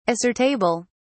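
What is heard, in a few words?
"assertable", British English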